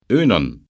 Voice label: "unan", Breton

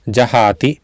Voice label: "jahaati", Sanskrit

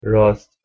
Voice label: "raast", Persian

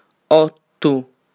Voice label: Sardinian

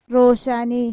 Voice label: "roshani", Persian

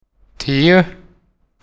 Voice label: "tíu", Icelandic

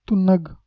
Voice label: "tunnag" Balochi

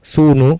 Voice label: "suunu", Sanskrit

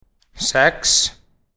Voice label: "sex", Icelandic

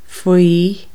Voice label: Irish "under"

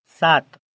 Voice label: "7", Gujarati

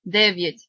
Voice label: "9", Ukrainian

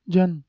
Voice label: "jan", Balochi

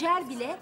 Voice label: cont rise

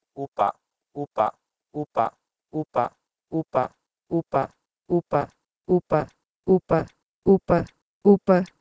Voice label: Sanskrit upa to Urdu uper

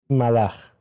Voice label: "malax", Persian

